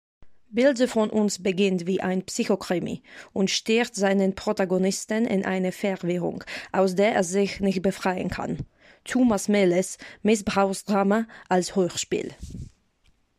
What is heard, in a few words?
voice sample german